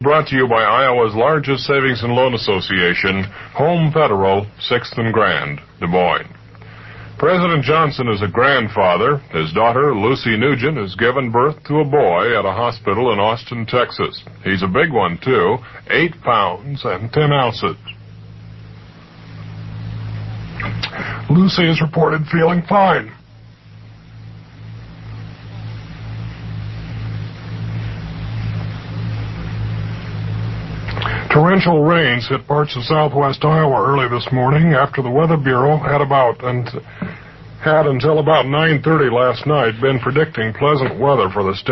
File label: frog in throat blooper; krnt